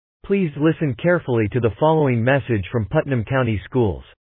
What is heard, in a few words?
Voicemail